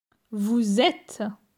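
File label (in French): "Vous êtes", French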